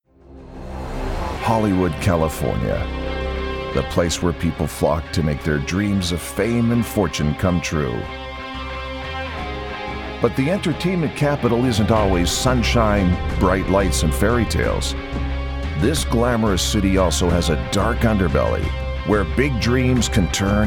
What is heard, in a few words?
english canadian documentaries; voice actors